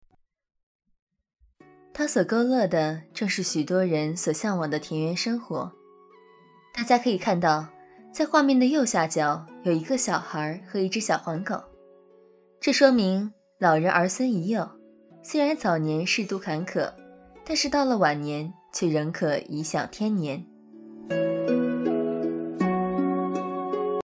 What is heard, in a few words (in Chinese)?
读短文